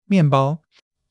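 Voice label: "mian4 bao1", Chinese; HSK3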